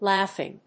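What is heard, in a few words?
"laughing", English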